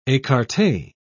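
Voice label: "ecarte", British English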